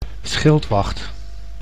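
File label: "schildwacht", Dutch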